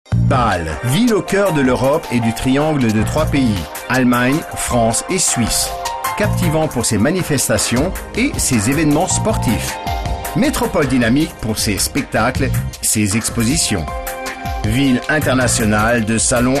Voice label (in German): FR Werbung Freundlich